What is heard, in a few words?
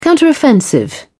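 "counteroffensive", British English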